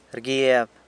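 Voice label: "rguieb", sews, San Lucas Quiaviní Zapotec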